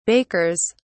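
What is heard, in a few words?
"bakers", British English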